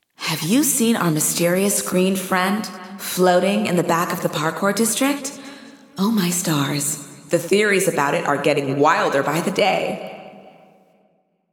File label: Announcements; Mysterious Crystal; GAIA